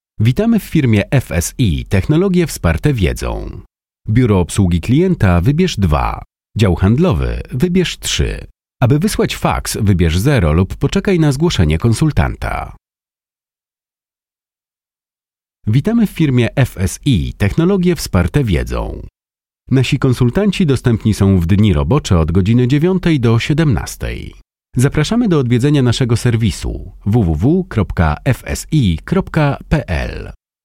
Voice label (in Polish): Lektor, Studio; Studio Nonagram